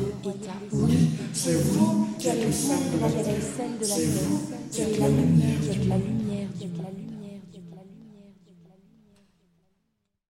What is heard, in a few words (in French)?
voix Heureux